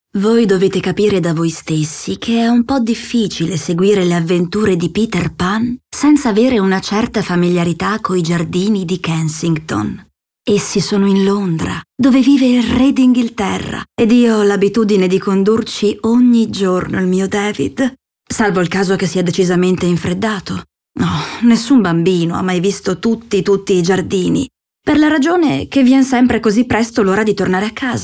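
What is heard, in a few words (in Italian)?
Descrittivo, voci femminili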